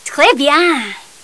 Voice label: cheer; maeve